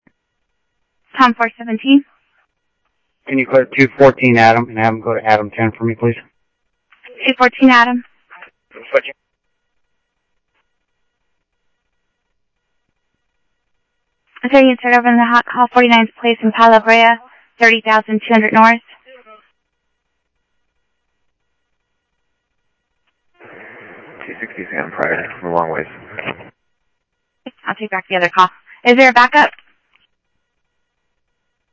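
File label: police department, scanner audio; ThompsonPeakHigh; PHOENIX PD-200 A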